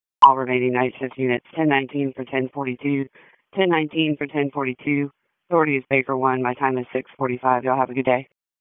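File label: Raleigh Police Northeast District Dispatch, p25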